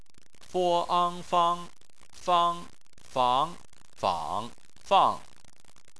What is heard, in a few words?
"fang", chinese